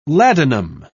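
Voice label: "ladanum", British English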